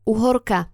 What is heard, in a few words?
"uhorka", slovencina